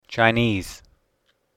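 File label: "chinese" English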